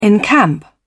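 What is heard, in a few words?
"encamp", British English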